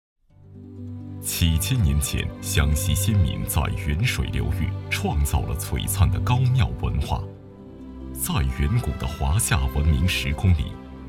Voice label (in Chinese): 男 讲述类文化